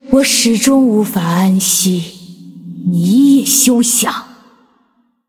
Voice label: Female Ghost Start, zh